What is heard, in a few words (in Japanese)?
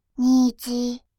虹, バイノーラル右